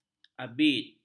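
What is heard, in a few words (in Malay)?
"abid", Malay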